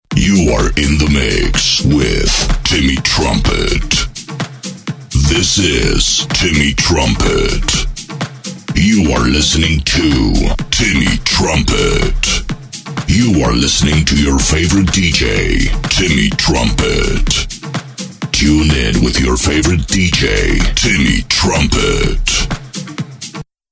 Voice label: 5x JINGLES pack. I Voice Only, "On Air" Universal Podcast Voice Samples